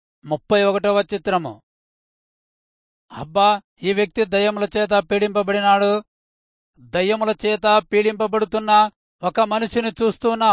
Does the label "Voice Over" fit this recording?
No